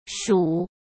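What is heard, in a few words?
"鼠", Mandarin Chinese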